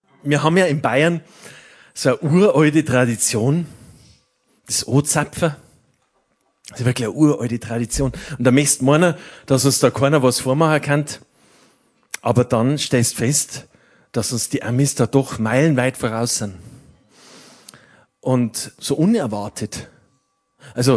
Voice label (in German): Ansage